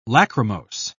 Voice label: "lachrymose", pronounce

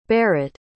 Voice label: "barit", pronounce

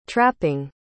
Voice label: "trapping", pronounce

